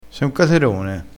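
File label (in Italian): "caterone", dialettale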